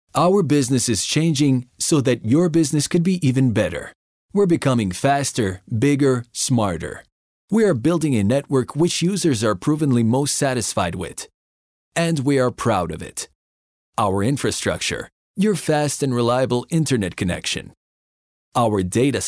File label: corporate, english